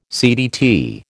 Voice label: "CDT", American